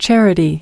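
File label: "charity" US English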